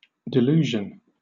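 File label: "delusion", British English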